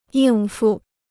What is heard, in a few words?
"应付", Chinese